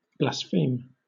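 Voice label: "blaspheme", English, British accent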